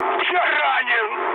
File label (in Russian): damaged pilot, mood med, speech ru; War Thunder